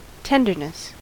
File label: "tenderness", US; pronunciations